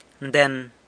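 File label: "nden", San Lucas Quiaviní Zapotec; that one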